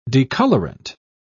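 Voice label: "decolorant", British English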